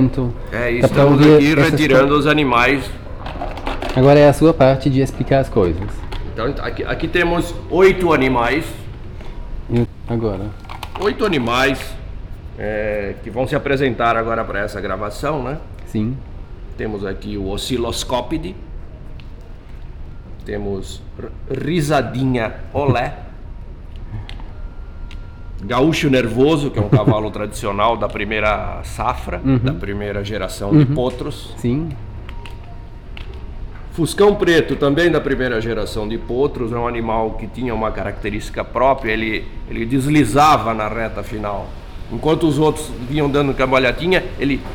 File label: Micro Jockey, Fieldrecordings, Brasilien